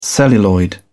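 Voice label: "celluloid", British English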